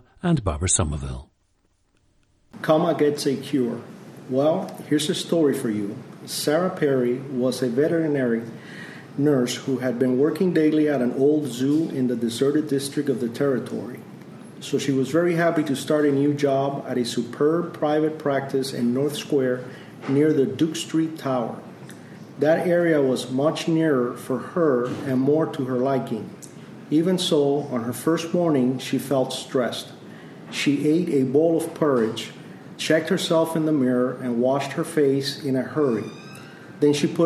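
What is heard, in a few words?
florida, International Dialects of English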